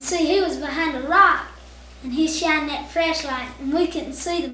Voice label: Conversation with 13 year old male West Virginia, american english dialect